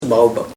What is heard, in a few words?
"whitehaired", Sora